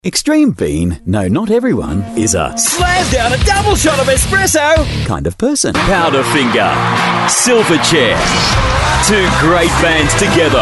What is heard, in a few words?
male talent retail